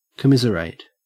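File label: "commiserate", Australian English; pronunciations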